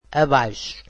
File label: portuguese under